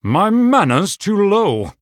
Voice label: Male Human ERROR NEED MANA